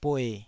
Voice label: "bue3", taiwanese Syllables